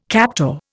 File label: "captor", Indian